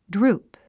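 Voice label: "droop", English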